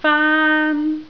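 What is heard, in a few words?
"faan1", Cantonese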